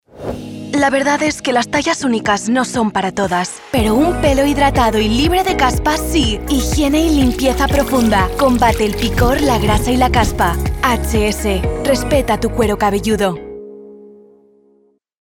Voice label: ENG Castilian Safe Powerful